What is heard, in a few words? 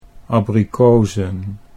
"abrikozen", Dutch